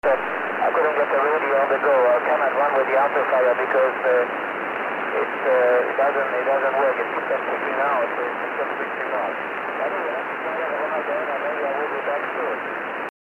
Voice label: 6m ssb